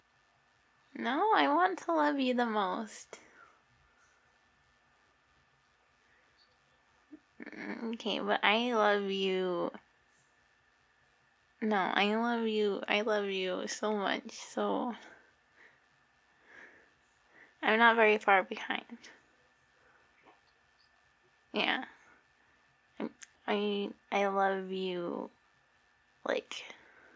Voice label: Recorded Skype Call